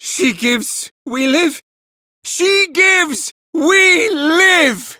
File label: Villagers Male, Cetus Invasion